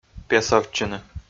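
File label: "песовтчны", Komi, male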